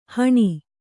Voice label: "ಹಣಿ", Kannada

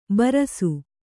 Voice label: "ಬರಸು", Kannada

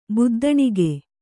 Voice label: "ಬುದ್ದಣಿಗೆ", Kannada

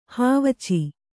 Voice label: "ಹಾವಚೆ", Kannada

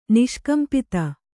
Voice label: "ನಿಷ್ಕಂಪಿತ", kannada

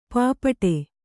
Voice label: "ಪೈಪಾಡು", kannada

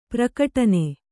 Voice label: "ಪ್ರಘಟ್ಟನ", Kannada

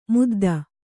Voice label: "ಮುದ್ದ", Kannada

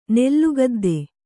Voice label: "ನೆಲಗೊದ್ದ", Kannada

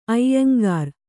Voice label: "ಐಯಂಗಾರ್", Kannada

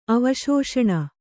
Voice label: "ಅವಿಶೇಷಣ", Kannada

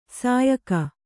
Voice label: "ಸಯ್ಯುಗ", Kannada

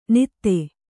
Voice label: "ನಿತ್ತೆ", kannada

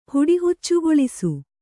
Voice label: "ಹುಡಿಹುಚ್ಚುಗೊಳಿಸು", Kannada

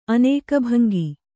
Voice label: "ಅನೇಕಭಂಗಿ", Kannada

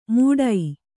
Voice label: "ಮೂಡೈ", Kannada

